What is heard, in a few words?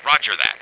roger, Male Voice; 11,025 sample rate; 0.08 seconds; Unreal Tournament Demo